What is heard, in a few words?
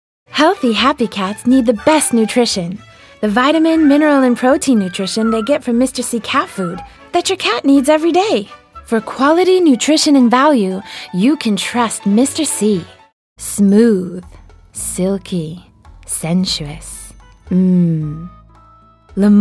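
American, TV commercial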